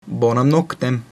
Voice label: goodnight, latin